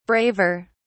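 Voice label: "braver", British English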